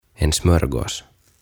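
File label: "En Smörgås", Swedish; Voileipä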